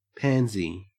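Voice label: "pansy", Australian English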